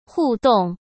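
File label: "互动" Mandarin Chinese; hsk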